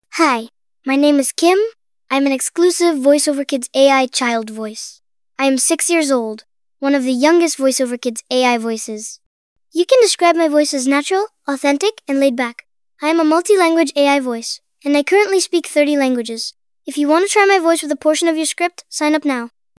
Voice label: girl6; voiceoverkids